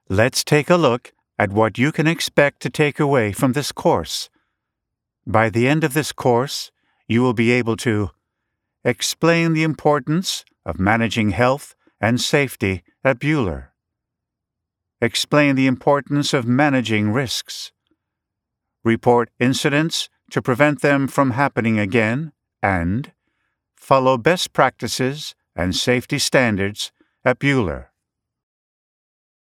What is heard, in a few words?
E-Learning; Buhler